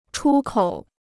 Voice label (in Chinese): "出口", Chinese